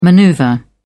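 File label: "manoeuvre", British English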